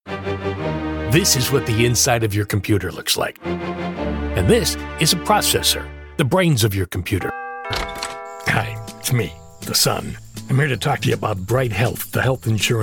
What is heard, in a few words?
CONVERSATIONAL